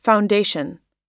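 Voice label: "foundation", English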